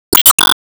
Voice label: Emo Confirm